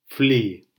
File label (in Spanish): "fli", silabas; lectura